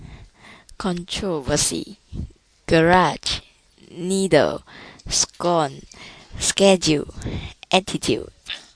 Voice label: perak malaysia male

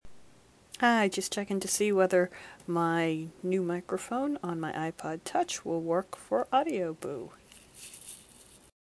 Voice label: testing new ipod touch mic

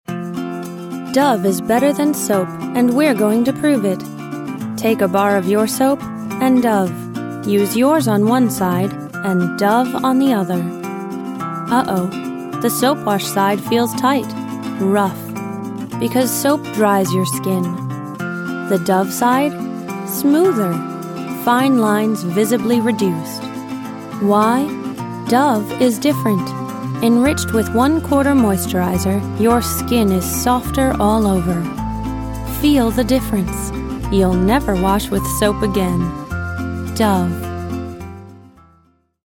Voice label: advert; dove soap

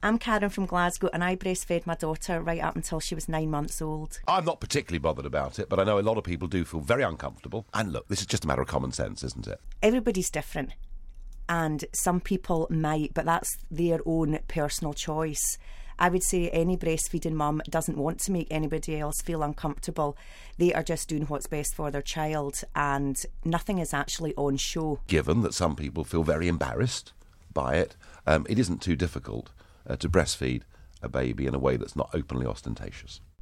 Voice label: Scottish mum reacts; nigel farage s comments on breastfeeding

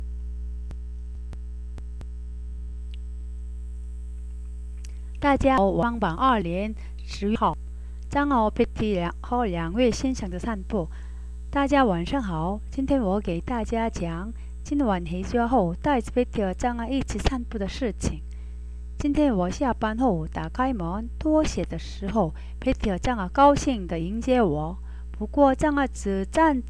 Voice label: chinese

